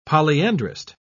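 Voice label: "polyandrist", British English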